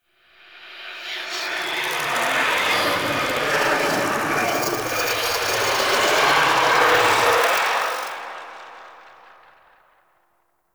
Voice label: End Whisper; Cinematics; Stalker; Warframe; Orbiter Cradle